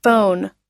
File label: "phone", us